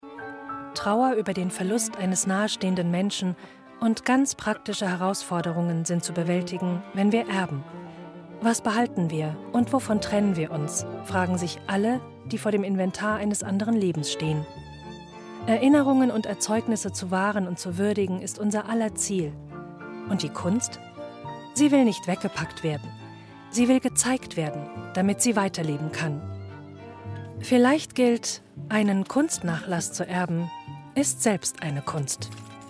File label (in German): Kommentar, Kulturdoku; Nachlass; 3sat